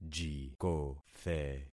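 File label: "jikothe", concatenated syllables; Affixing experiment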